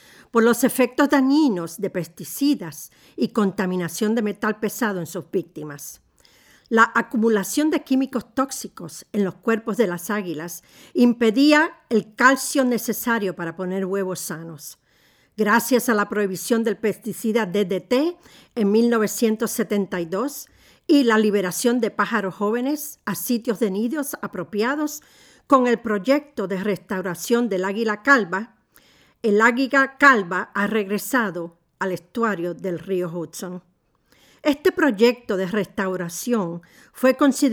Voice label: American Bald Eagle Spanish